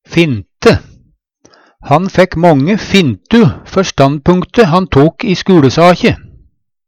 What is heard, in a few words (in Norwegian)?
finte, Numedalsmål; Substantiv hokjønn; Han fækk månge fintu før standpunkte han tok i skuLesakje.